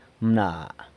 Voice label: "mna", San Lucas Quiavini; woman